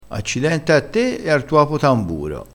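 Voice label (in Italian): "accidenti capo tamburo", dialettale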